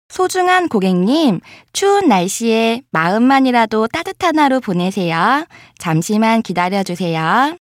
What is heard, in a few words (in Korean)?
상담사 소중한 고객님 추운날씨에 마음만이라도 따듯한 하루 보내세요